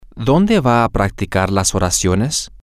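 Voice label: where is she going to practice the sentences, Spanish, male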